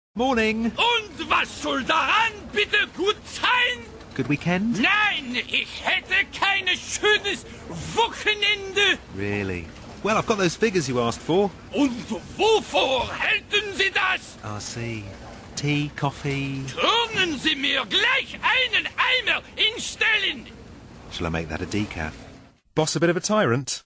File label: Ad